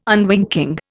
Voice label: "unwinking", Indian

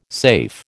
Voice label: "safe", American

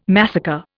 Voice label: "massacre", Indian

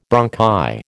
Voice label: "bronchi", American

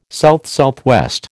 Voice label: "SSW", American

